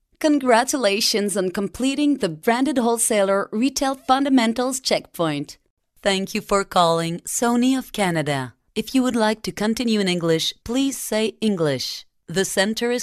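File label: French Canadian, English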